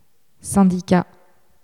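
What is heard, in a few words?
"syndicat", French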